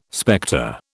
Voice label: "spectre", British

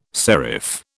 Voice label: "serif", British

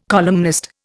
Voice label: "columnist", Indian

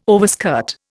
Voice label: "overskirt", Indian

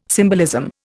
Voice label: "symbolism", Indian

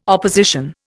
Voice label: "opposition", Indian

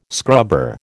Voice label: "scrubber", American

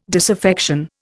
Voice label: "disaffection", Indian

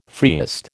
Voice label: "freest", American

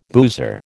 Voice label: "boozer", American

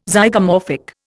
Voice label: "Zygomorphic", Indian

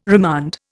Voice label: "remand", Indian

